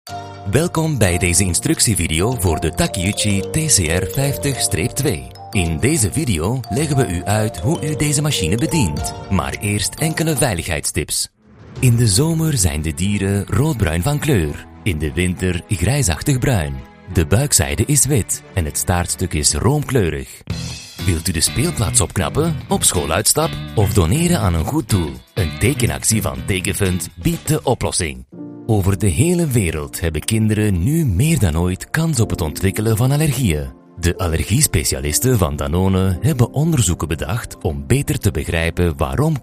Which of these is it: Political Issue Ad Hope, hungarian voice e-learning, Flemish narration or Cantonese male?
Flemish narration